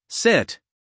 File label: "sit", US male; everyone-can-use-english